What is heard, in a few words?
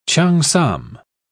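"cheongsam", British English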